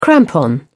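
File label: "crampon", British English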